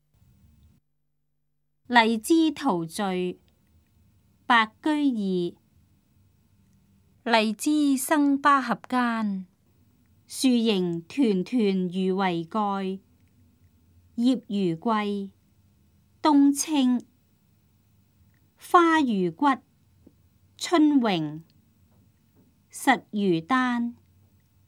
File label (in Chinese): cantonese; 荔枝圖序; 粵語